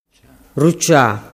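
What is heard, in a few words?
"rucia", Sicilian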